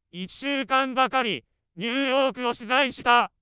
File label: synth anger